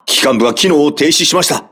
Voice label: voice message lost propulsion, crew ships japanese